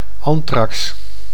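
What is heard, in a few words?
"anthrax", Dutch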